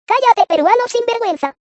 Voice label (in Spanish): callate peruano